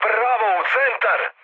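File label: Serbian bomb success mood med, speech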